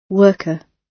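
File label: "worker", British English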